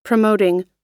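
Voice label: "promoting", American English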